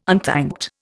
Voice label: "unthanked", Indian

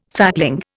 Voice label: "fatling", Indian

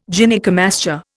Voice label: "Gynaecomastia", Indian

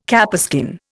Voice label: "capeskin", Indian

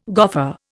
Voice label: "goffer", Indian

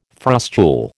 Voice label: "frustule", American

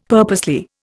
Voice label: "purposely", Indian; Dictionary

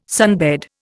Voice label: "sunbed", Indian

